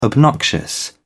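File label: "obnoxious", British English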